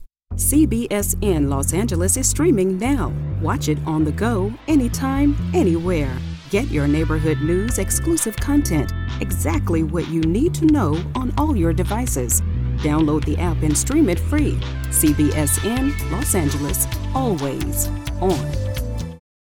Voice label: Streaming Now Authoritative; CBSN LA